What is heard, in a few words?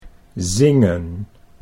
"zingen", Dutch